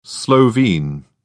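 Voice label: "slovene", British English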